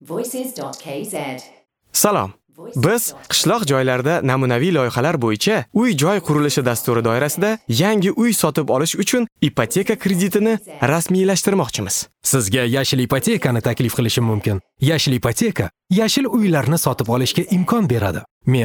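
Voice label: Uzbek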